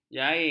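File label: "jaing", Malay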